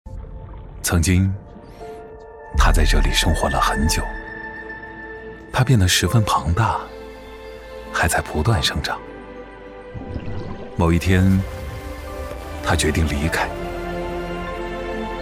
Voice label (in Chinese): 男 【自然讲述】 广告, 磁性沉稳 高端大气; 宝马